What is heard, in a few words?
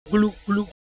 Siwu Motion